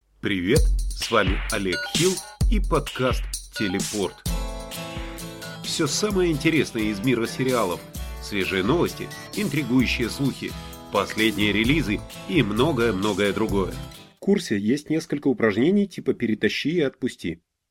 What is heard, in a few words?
Russian